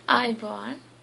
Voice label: hello sinhala